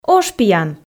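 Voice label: "ошпиян", Komi, female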